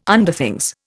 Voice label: "Underthings", Indian